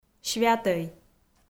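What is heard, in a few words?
"святӧй", Komi, female; kpv audio dict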